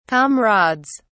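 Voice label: "comrades", English, British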